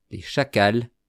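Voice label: "les chacals", French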